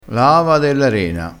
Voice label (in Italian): "ava della rena", crespinese